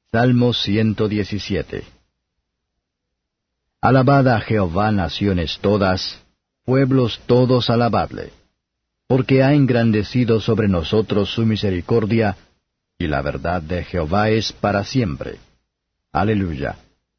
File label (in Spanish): salmos spanish